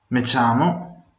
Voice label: my name is, venetian